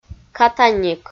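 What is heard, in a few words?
"катаник" Komi, female; kpv audio dict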